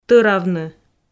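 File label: "тӧравны", Komi, female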